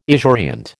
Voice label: "esurient", American